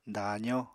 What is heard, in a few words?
"daazó", Apatani